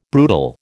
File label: "brutal", American